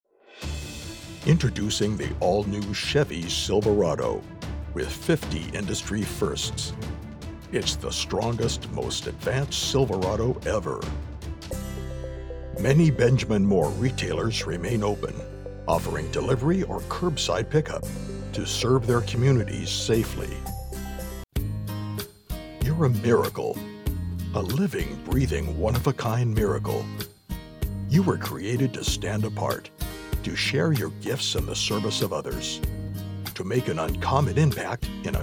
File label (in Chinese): 浑厚深度旁白男